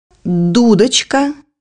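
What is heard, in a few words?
"pipe", Russian; bukvar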